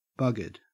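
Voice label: "buggered", Australian English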